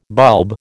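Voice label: "bulb", American English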